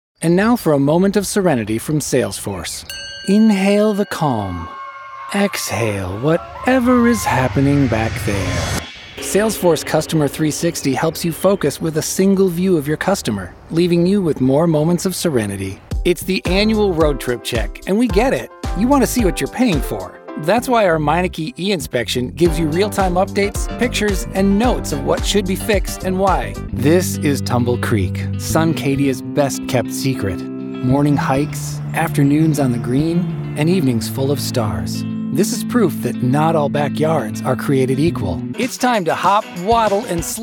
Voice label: Commercial